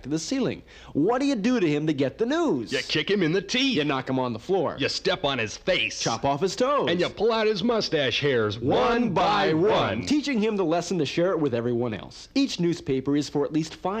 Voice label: Radio Spot: Sharing Stories Of Stars And Stripes Advisory; Mono